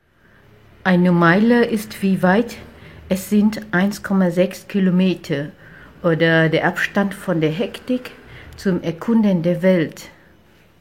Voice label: Tieng Duc; VN AirLine

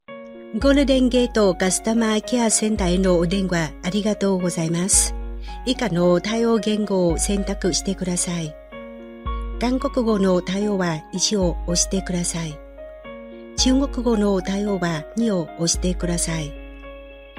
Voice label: Voice Tieng Nhat; Nha Hang; Tap Doan Nha Hang GOLDEN GATE

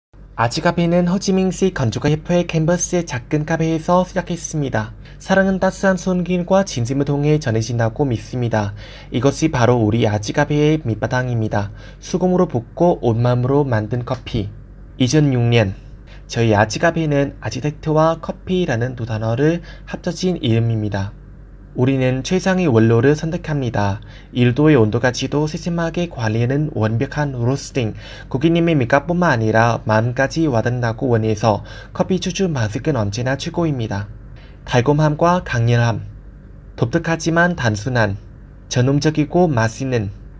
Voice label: Thu am tieng Han; Thuong hieu Arch Cafe; thu am da ngon ngu

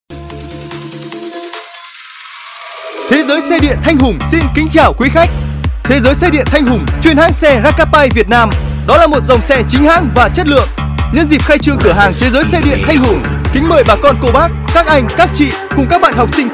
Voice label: thu am quang cao; The Gioi Xe Dien Thanh Hung

